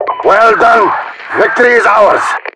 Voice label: announcer axis win